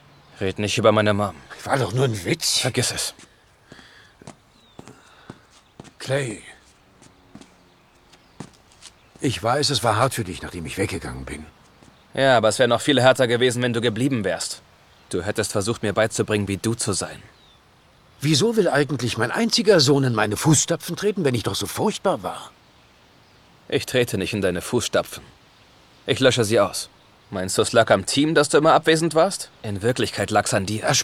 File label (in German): Synchron, maenner; Seal Team; Clay